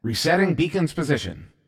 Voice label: Resetting Position, CTF Announcer

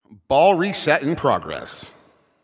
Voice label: Announcer Waiting For Players After Score

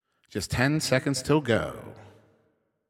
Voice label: 10 Seconds To Game Start, Announcer

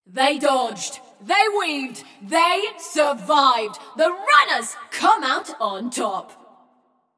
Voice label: Runners Win, Announcer; Flux; Scraprun

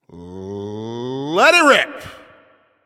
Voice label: Game Start, Announcer

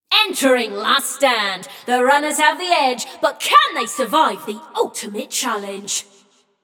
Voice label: Runners Lead, Announcer; Flux; Scraprun

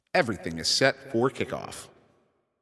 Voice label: Ball Placed in Start Ring, Announcer

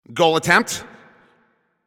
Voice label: Shot On Goal, Announcer

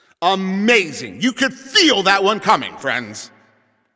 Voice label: Goal Scored, Announcer

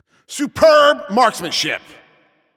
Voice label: Announcer Especially Good Shot

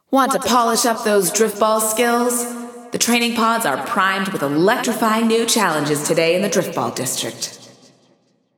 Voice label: Announcing New Challenges; Driftball; GAIA

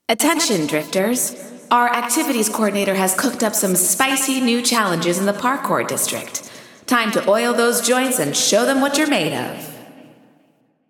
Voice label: Announcing New Challenges; Parkour; GAIA

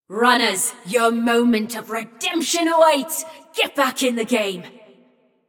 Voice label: Checkpoint Opened, Announcer; Flux; Scraprun

